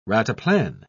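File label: "rataplan", British English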